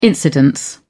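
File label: "incidence", British English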